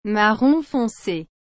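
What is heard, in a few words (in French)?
"marron foncé", French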